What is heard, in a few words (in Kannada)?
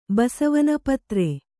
"ಪತ್ರೆ", kannada